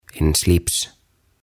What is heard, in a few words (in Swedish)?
"En Slips" "Solmio"